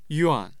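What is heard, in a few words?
"yuan4", Mandarin Chinese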